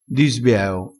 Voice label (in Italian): "di sbieo", vocabolario dialettale